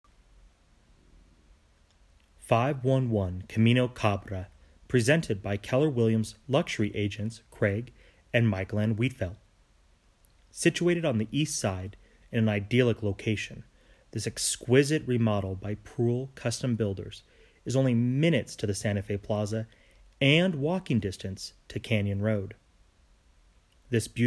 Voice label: voiceover